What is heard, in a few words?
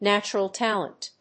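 "natural talent", English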